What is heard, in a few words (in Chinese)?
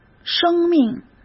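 "生命", Mandarin Chinese; chinese flashcards; hsk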